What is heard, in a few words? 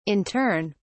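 "in turn", British English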